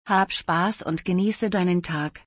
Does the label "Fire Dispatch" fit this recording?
No